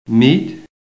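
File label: "meet", American English; PHONETIC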